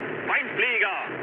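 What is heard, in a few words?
German enemy detected mood med, speech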